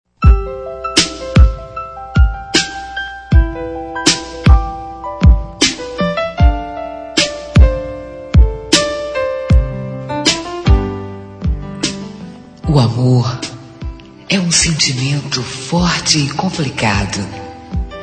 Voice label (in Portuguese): Declaracao De Amor Voz Fem, Telemensagens